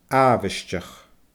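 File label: "aabhaisteach", Scottish Gaelic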